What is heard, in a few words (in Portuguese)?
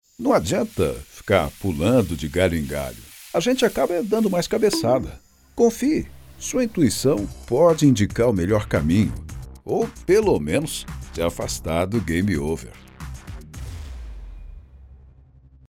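Português - Brasileiro, Masculino, Voz do Além; Natural Conversado; Voz Madura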